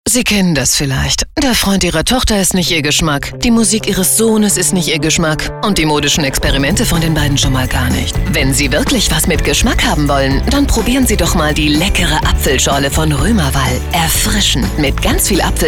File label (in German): werbespot souveraen; Werbung